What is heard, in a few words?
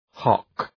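"hock", English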